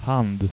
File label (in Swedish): "hand", Swedish